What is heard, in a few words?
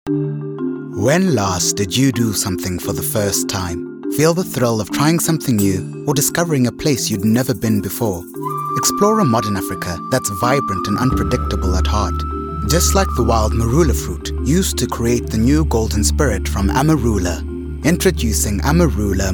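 english south african male commercial; voice demos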